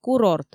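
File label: "курорт", Komi, female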